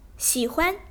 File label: "xi3huan", zhongwen